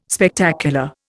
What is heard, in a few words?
"Spectacular", Indian